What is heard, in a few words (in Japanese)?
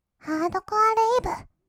ハードコアレイヴ, kawaii voice, 音楽ジャンル; ASMR